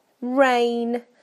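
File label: "rain", British English; PictureDictionary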